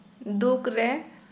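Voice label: Santali, female, sadly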